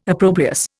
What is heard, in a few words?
"opprobrious", Indian